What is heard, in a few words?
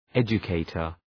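"educator", English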